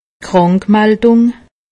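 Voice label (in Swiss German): "krankmaldung", Alsatian; medecine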